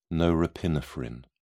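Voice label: "norepinephrine", British English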